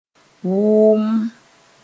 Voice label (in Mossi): "wʋmm", moore; softly